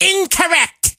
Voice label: hurt

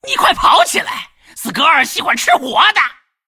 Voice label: attack, zh; Kled

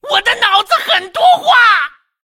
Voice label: attack, zh

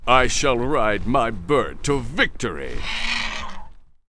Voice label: Windserpent pissed

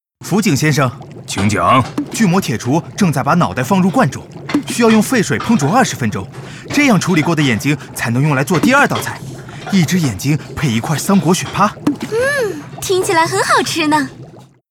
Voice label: Witch doctor pissed

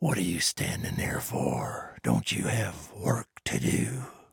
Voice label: trader male dislike quest accepted

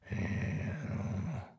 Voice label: trader male dislike greeting

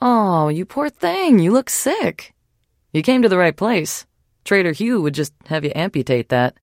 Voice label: trader greet sick; 商人杰恩（七日杀）

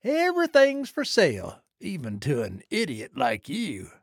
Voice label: trader male dislike greeting

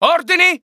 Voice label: Neutral

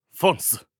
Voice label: hurt

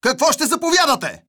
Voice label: neutral

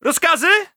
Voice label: neutral

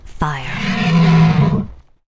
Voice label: Hippogryph with rider yes attack

